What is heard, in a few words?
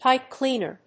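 "pipe cleaner", English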